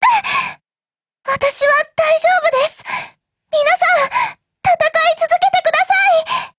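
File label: Japanese, Voice; BREAK; GF Alarm; PPsh41Mod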